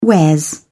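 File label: "wares", English, British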